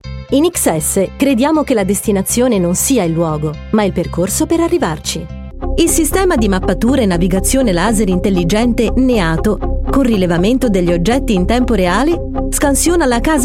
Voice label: IT Corporate

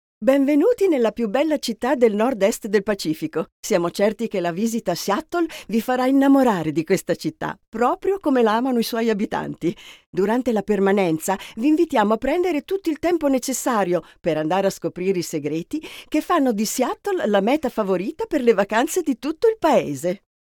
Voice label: Audioguida ITA

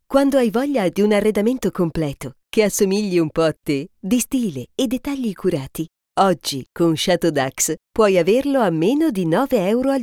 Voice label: ITA Fem

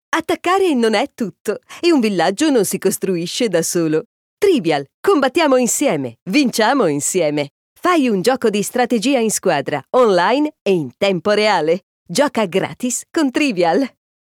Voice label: ITA ADV Game

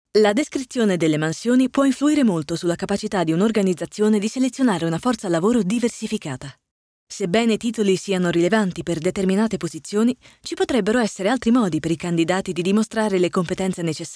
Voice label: Informativo

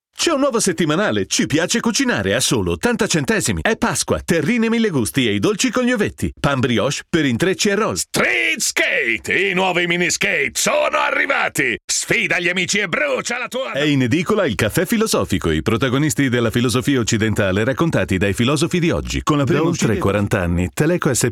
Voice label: Voice Talent tv promo

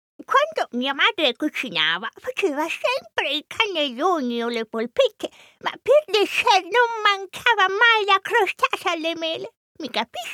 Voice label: ITA Character old woman

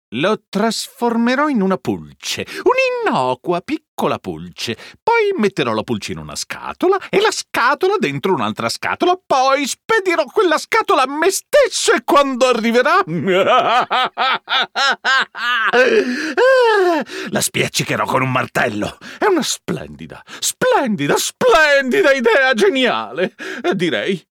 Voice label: ITA character cartoon mad badboy

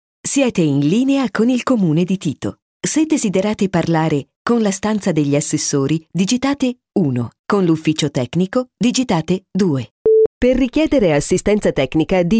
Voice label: ITA IVR segreteria risponditori

